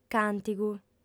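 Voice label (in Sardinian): "càntigu", female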